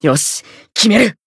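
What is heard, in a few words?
Voice finish, Japanese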